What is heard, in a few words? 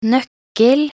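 "nøkkel", Norwegian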